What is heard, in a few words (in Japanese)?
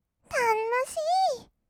"たのしー", kawaii voice; ASMR; 色々なワンショット